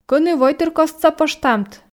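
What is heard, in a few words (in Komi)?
кӧні войтыркостса поштамт, Komi, female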